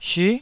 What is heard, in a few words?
"syu9", taiwanese Syllables